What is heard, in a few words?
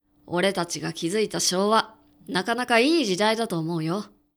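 male; 男性 「俺たちが築いた昭和、なかなかいい時代だと思うよ。」; クール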